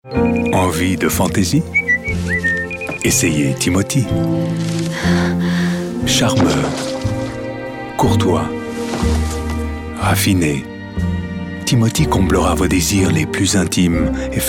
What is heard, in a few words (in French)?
VF narration malicieux voix off voice over; Tuer n'est pas jouer